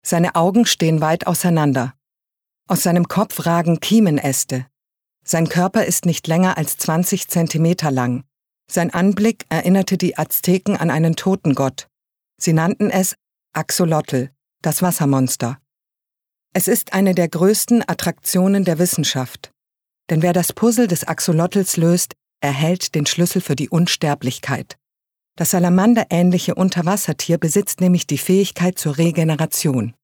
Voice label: Dokumentarischer Text

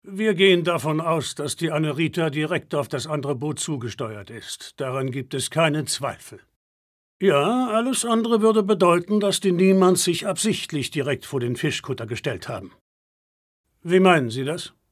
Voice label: Synchron

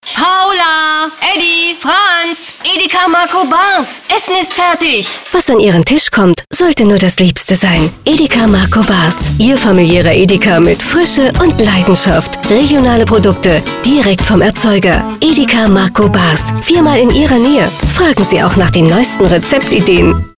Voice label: Werbung; Edeka

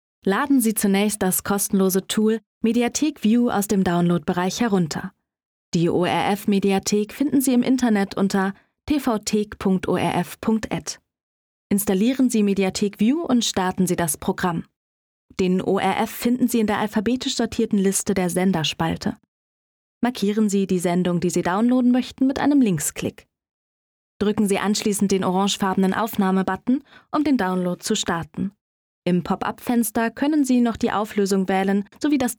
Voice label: Erklaerfilm

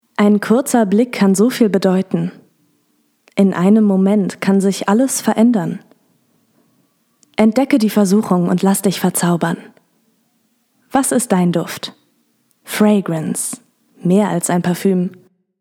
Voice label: Werbung Fragrance; LOFT VOICES

